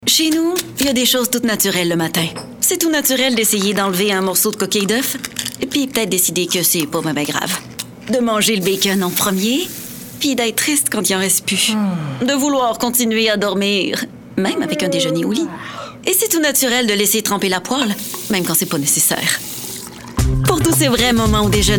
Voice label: Démo complet - Variétés de personnages

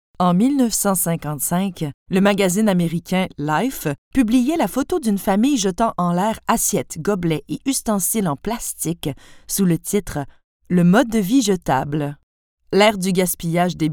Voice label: voix Captivante Chaleureuse; Ordures; Baladofictif

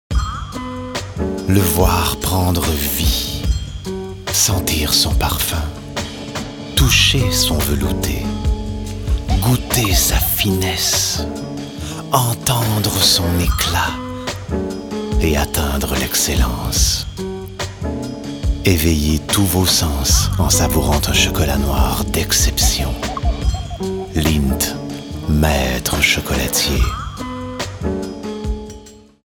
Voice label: Français normatif Voix Sensuelle Charmante Sobre Posée Pub fictive; Lindt

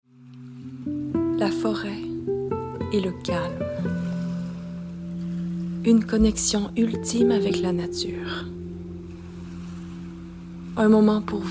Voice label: Pub fictive Voix posée sobre suave; Siberia

